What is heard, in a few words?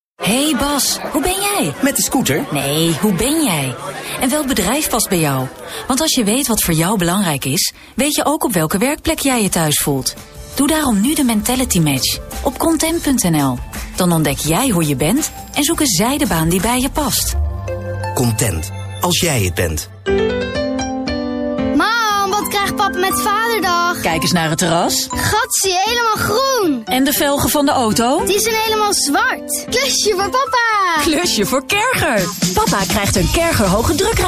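Dutch female commercial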